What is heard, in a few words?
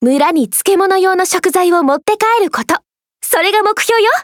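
start, Japanese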